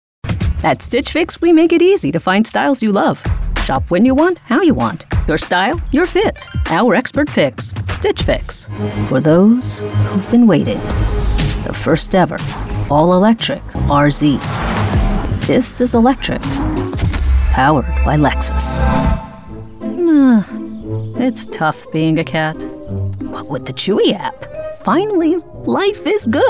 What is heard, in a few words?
Commercial Demo